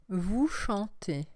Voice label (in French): chanter vous chantez, french